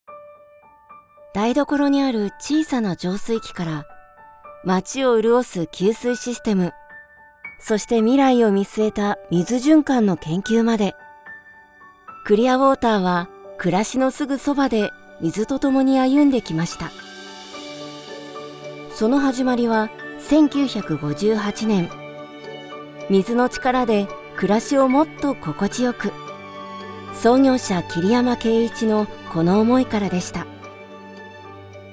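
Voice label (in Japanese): ナチュラル 企業紹介, ナレーション; VOICE SAMPLE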